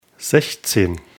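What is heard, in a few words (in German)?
"sechzehn", German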